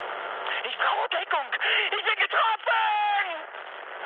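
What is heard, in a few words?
German aircraft damaged mood high, speech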